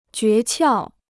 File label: "诀窍", Chinese